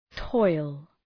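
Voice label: "toil", English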